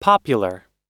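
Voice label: "popular" US English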